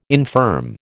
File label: "infirm", American